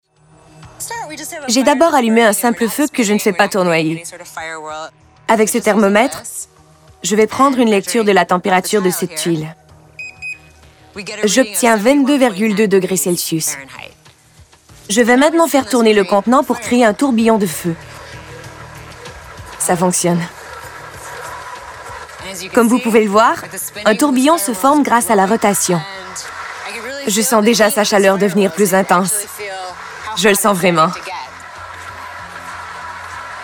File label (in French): surimpression vocale